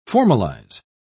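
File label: "formalise", English, British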